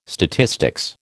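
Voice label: "statistics", American English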